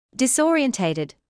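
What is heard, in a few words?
"disorientated" English, British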